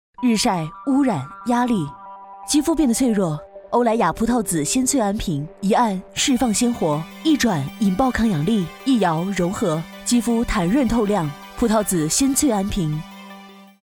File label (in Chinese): 女-广告; 欧莱雅鲜粹安瓶